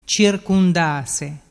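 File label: "circundase", Vernazzese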